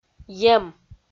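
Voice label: "ем", Komi, female; kpv audio dict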